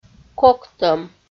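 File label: "коктӧм", Komi, female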